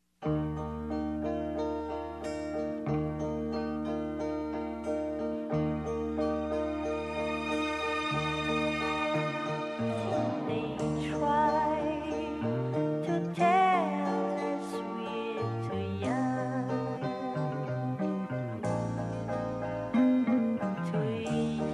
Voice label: dp avo fem infantil, dia dos pais para avo voz infantil voz feminina

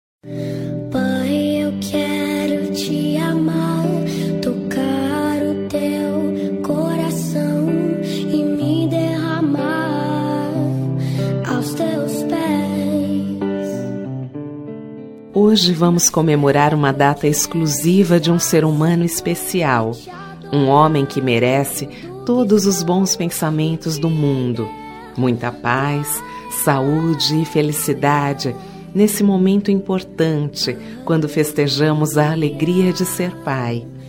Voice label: dia dos pais feminina; evangelica

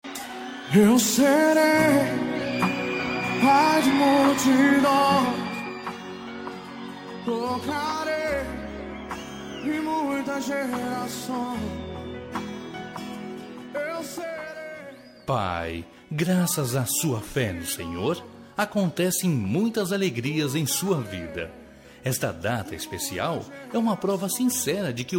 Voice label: masc gospel, Dia dos Pais Evangélico – Voz Masculina

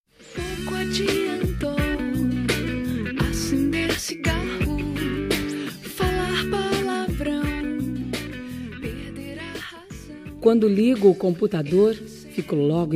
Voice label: virtual fem, Telemensagem Romântica Virtual – Voz Feminina

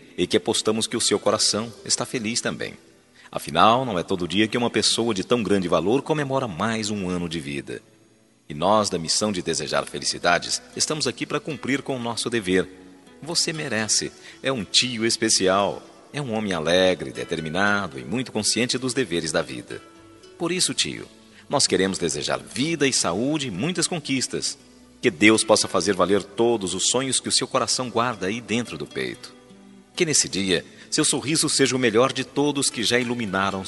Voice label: Aniversário de Tio, Voz Masculina; Telemensagens para todas as ocasiões

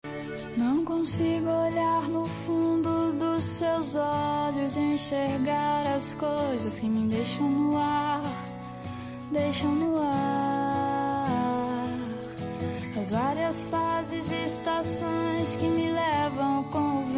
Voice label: dn namorados fem, Dia dos Namorados, Para Namorado, Voz Feminina

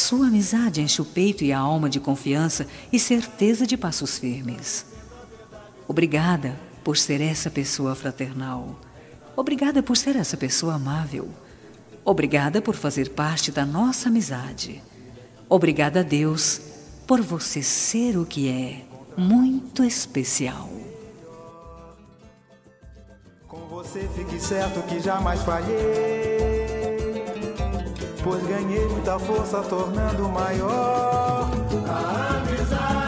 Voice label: amiga fem, Dia do Amigo – Voz Feminina

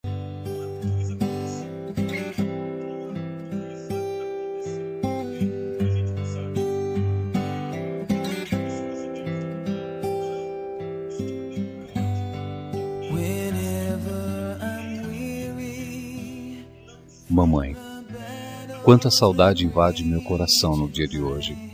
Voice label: voz masculina; dia das maes para mae distante

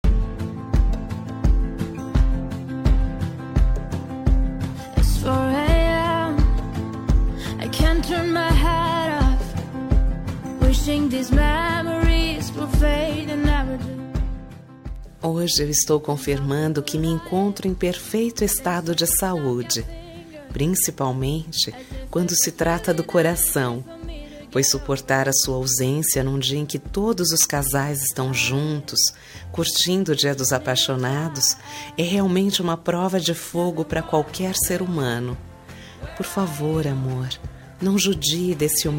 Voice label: dia dos namorados amante, voz feminina; dia dos namorados amantes voz feminina

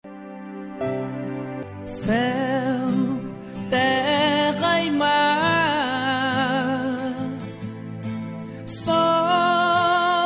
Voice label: dia dos namorados gospel namorada, evangélica voz masculina

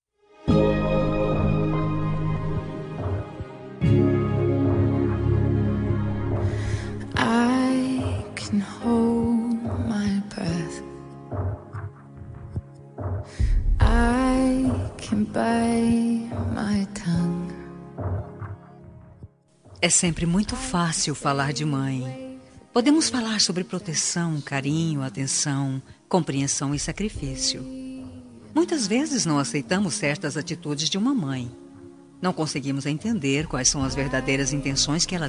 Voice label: Homenagem para Mãe, Voz Feminina; Homenagens

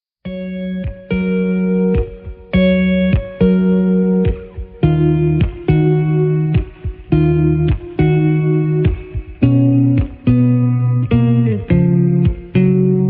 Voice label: desculpa masc neutra, Telemensagem de Desculpas Geral – Voz Masculina

